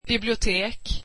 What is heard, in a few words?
swedish library